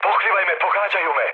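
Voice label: Serbian aircraft damaged mood low, speech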